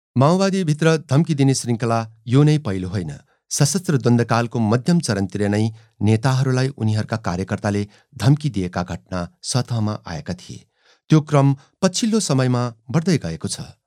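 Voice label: Nepalese Male voice over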